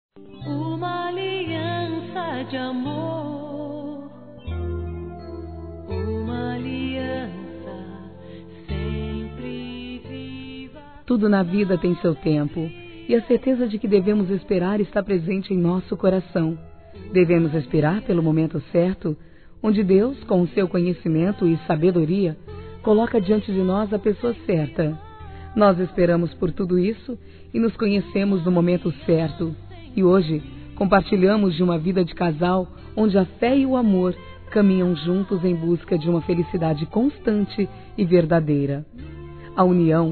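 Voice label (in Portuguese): Trilha ANIVERSÁRIO CASAMENTO ROMANTICO, Gospel, fem; Telemensagens; ANIV.CASAMENTO ROMANTICO; Telemensagens 2017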